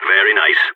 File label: nice shot, balkan